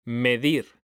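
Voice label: "medir", Spanish, male; mekanta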